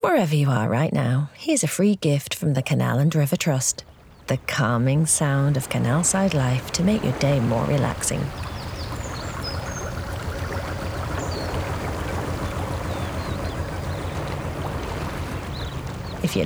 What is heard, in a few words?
Drivetime Upbeat Read Neutral Accent, Radio Mix; The Gate; Canal River Trust